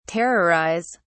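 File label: "terrorise", English, British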